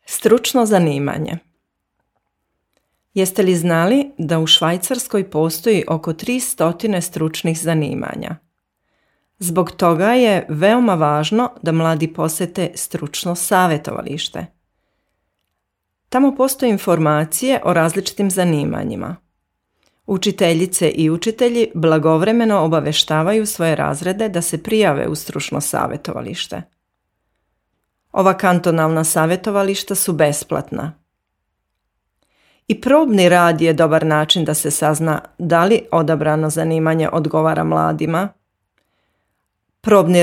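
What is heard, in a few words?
Serbian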